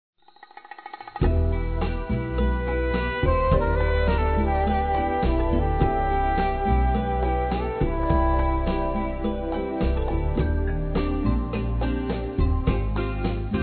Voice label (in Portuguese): NEUTRA, Telemensagens, Páscoa, masc